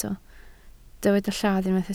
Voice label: "lladd", Welsh